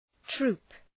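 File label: "troop", English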